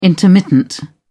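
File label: "intermittent", British English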